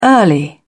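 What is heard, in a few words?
"early", British English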